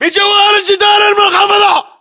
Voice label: landmark near walllow, localized english battlechatter